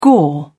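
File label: "gore", English, British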